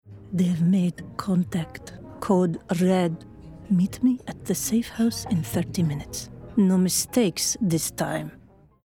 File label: Character Polish Spy